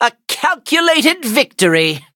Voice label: victory, en; cookie run

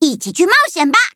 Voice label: touch, zh hant; cookie run

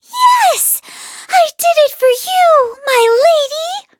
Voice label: victory en

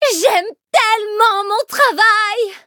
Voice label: levelup, fr; cookie run

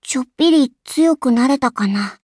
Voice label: levelup ja; cookie run

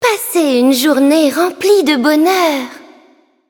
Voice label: victory, fr; cookie run

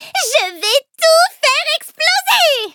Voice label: victory, fr; cookie run

